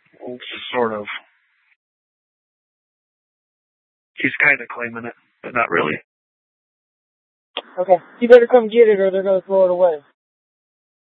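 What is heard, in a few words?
police dispatch radio, scanner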